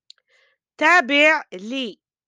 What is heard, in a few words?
"تابع لـ", affiliated with